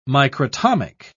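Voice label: "microtomic", British English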